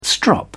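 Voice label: "strop", British English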